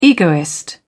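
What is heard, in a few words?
"egoist", British English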